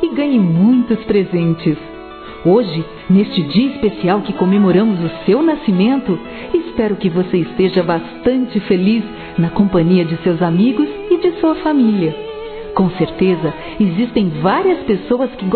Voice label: Para Criança Geral Feminino, Aniversário Infantil; Telemensagens

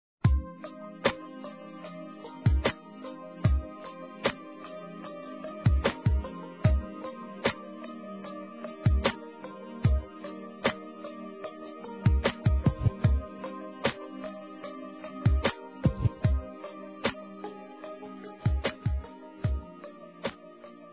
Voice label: Poesia Masculino, Telemensagens Românticas

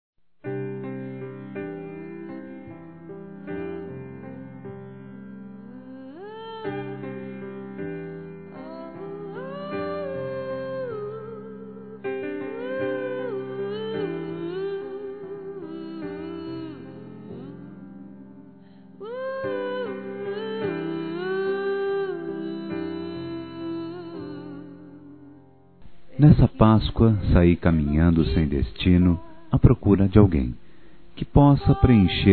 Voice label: ROM. DISTANTE, masc

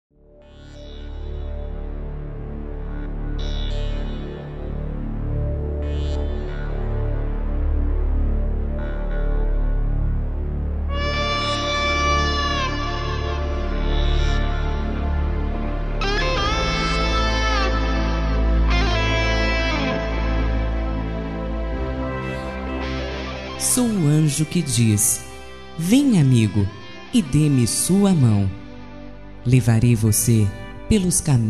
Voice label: ESOTERICO AMIZADE (F)

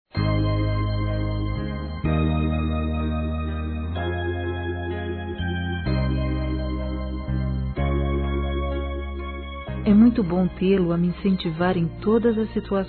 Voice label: HOMENAGEM AO AMIGO F, Telemensagens Gospel fem; Telemensagens 2017

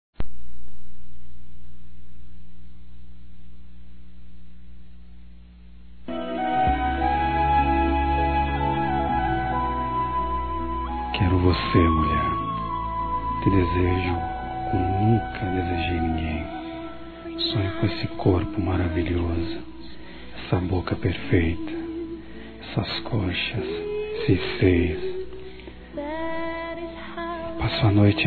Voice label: CONQUISTA ERÓTICA M, Sensuais masc; Telemensagens

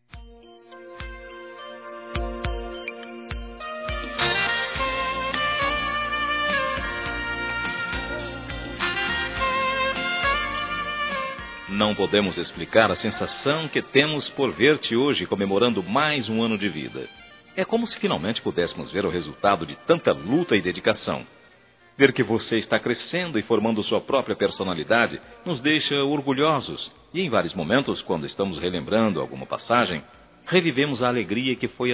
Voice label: Aniversário Neto Masculino, Telemensagens; Aniversários; Aniv Familiar

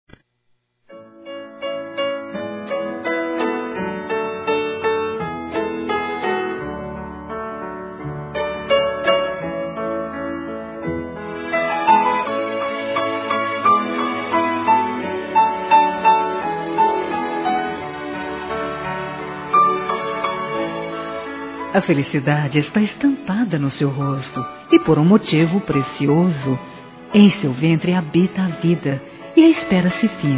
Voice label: Felicitações Para Futura Mãe Feminino; Telemensagens; Telemensagens 2017

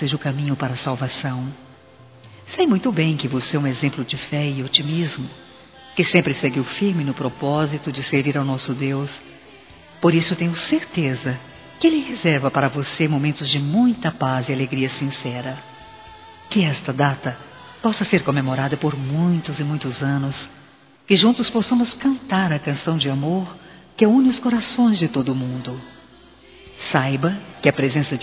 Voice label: Aniversário Neutro Evangelico Feminino

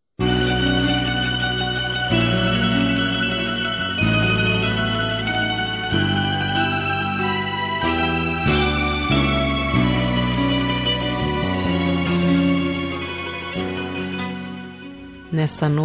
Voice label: Natal Neutro Feminino; Telemensagens; Natal e Ano novo

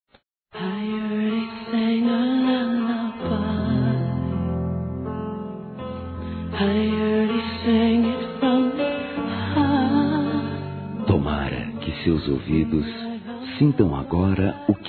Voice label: PAQUERA M; Telemensagens; Conquistas Cantadas paqueras; masc; Telemensagens 2017

